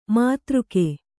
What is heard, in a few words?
"ಮಧುರಿಕೆ", Kannada